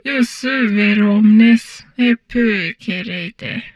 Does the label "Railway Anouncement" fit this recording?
No